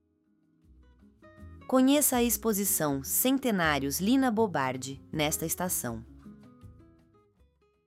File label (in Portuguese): narracao; conheca a expo